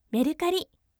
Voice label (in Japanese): voice mercari yasashime